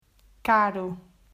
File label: "Caro", Portuguese